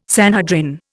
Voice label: "Sanhedrin", Indian